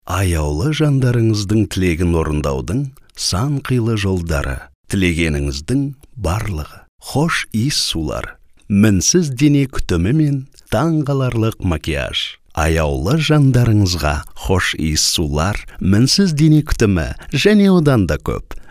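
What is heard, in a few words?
kazak male narration; voice demos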